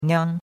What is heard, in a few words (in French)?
"niang1", chinois; Cours-de-Chinois